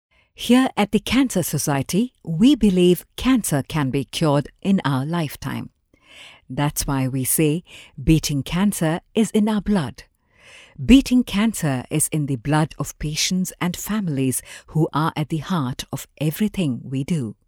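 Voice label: English Documentary, neutral English voice over; Young Adult female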